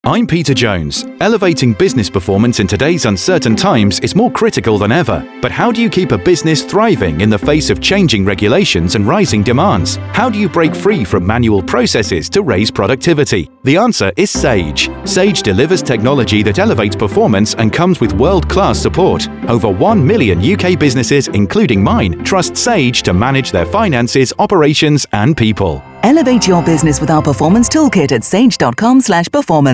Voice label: Radio Ad; Sage Elevate